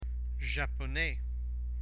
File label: "japonais", French